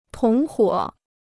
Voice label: "同伙", Chinese